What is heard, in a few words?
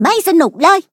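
defeat, Thai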